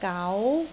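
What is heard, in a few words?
"gau2", Cantonese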